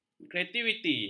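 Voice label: "kreativiti", Malay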